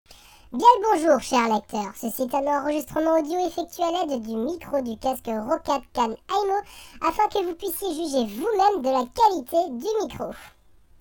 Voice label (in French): cartoon, test roccat khan aimo; vonguru